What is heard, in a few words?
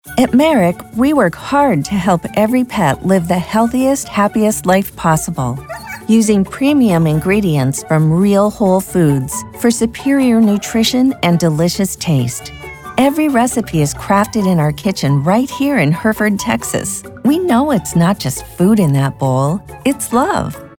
spot; Merrick